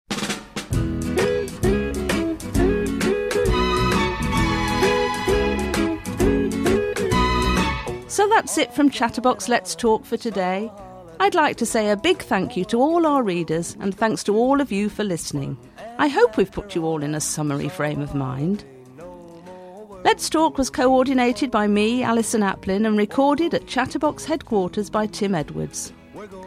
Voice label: Closing Announcement